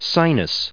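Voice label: "sinus", US English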